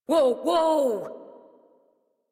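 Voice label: horse stop adult, English; Warframe; Duviri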